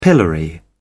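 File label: "pillory", British English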